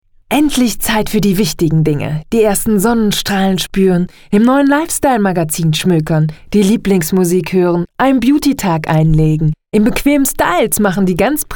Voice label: werbespot

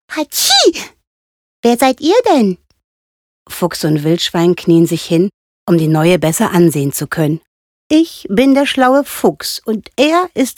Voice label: hoerspiel probe

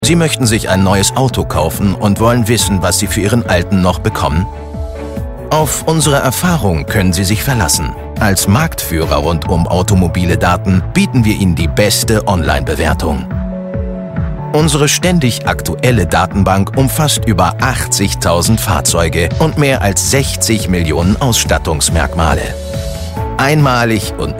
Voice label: erklaerfilm; schwacke

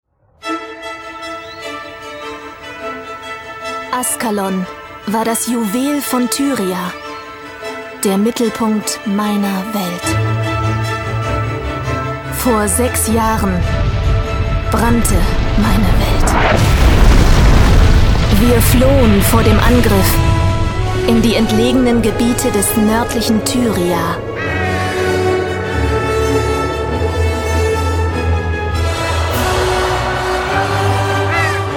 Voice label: trailer pc spiel offstimme

